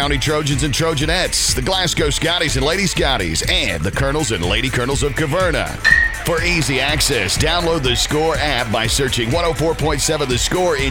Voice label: DISTRICT BASEBALL PROMO